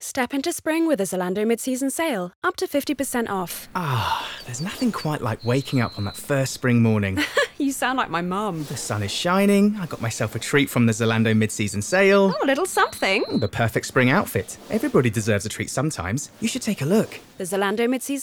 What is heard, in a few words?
RADIO; ZALANDO